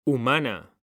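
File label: "humana", Spanish, male; mekanta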